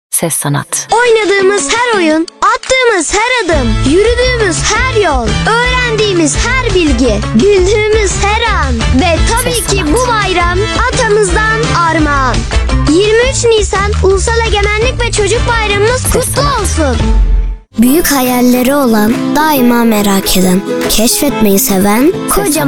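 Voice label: Turkish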